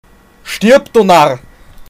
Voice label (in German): Narr